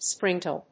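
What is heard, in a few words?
"spring tail", English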